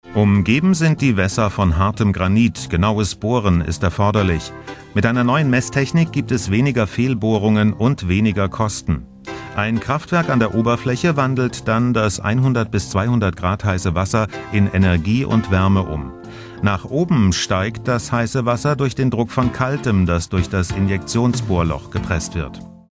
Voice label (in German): off-sprecher wissenschaft